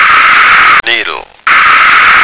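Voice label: "needle", American English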